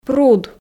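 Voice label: "пруд", Komi, female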